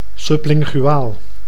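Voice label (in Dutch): "sublinguaal", Dutch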